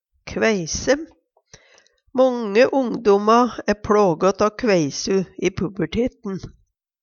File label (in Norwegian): "kveise", Numedalsmål